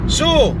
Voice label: Scottish so

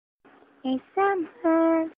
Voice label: Sweet Voice

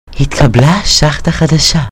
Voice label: Sms Hebrew

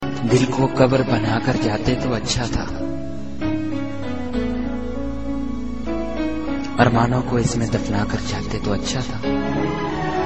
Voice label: Sad Poetry, Urdu

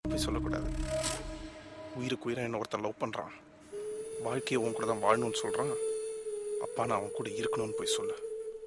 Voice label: Love Dialogue Tamil

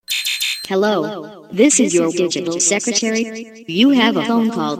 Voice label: Phone Call; Secretary

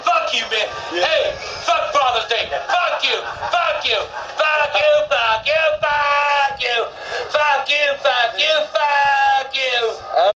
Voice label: angry grandpa

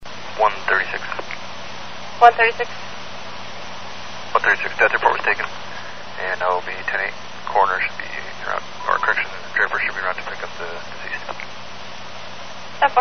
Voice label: Police Radio Chatter

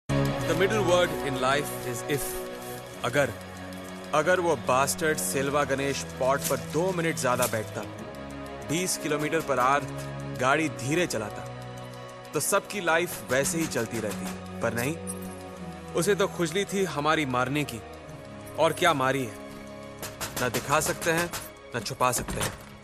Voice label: Dirty Dialogue

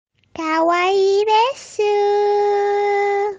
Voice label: "Kawaii desu"

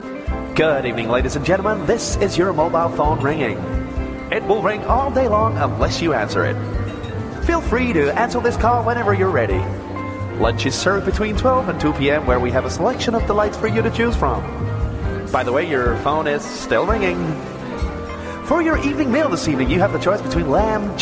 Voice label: Lounge Announcement

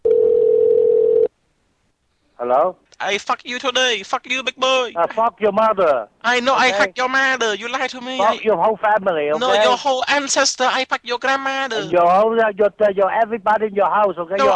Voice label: Angry Phone Call

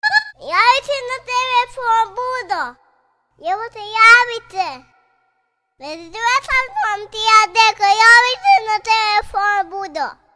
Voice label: Sweet Baby Saying

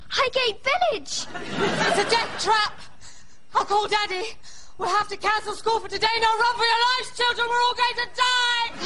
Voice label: posh; Catherine Tate

